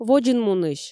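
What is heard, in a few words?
"водзын мунысь", Komi, female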